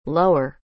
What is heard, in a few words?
"lower", English